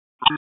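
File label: EMS Dispatch; wake; p25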